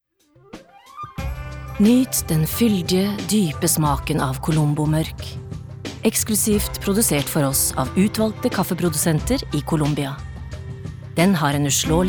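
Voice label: Norwegian soft sell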